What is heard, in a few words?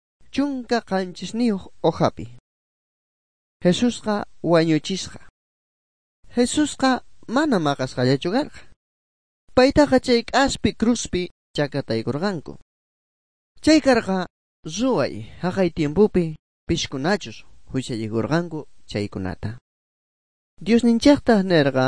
good news quechua bolivian sucre; bible